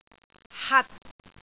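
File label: "hat6", Cantonese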